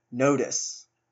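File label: "nodus", US English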